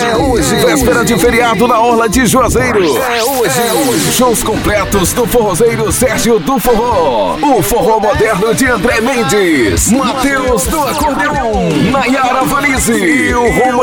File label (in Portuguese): locutor aniversario tropical